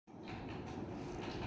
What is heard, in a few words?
voice message